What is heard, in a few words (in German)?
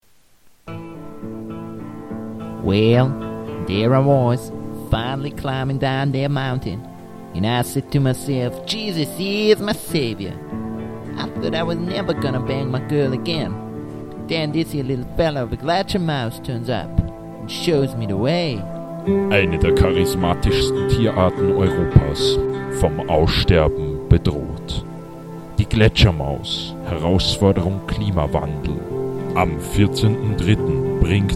Trailer; Gletschermaus